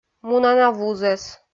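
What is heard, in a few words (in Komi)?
"мунана вузӧс", Komi, female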